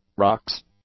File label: "rocks", English; nouns